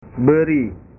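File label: "beri", Indonesian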